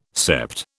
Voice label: "sept", British